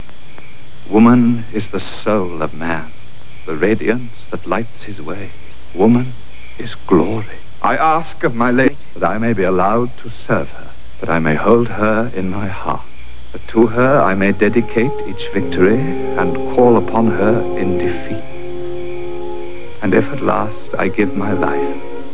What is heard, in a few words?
woman